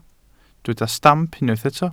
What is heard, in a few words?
"stamp", welsh